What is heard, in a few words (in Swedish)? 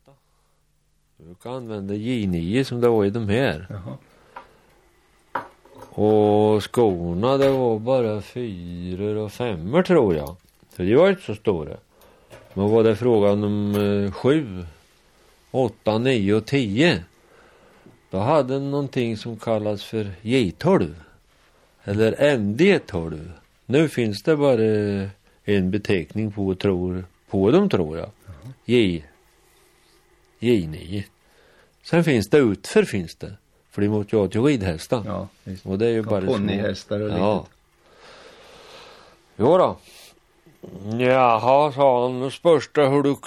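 Tåby Östergötland, dialekter; Folkminnen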